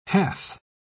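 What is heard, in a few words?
"hath", English, uk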